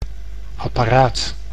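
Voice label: "apparaat", Dutch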